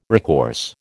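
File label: "recourse", American English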